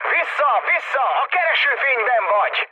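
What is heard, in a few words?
Hungarian searchlite mood med, speech; War Thunder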